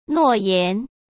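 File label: "诺言", Mandarin Chinese; chinese-flashcards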